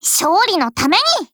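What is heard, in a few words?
skill, Japanese; 97type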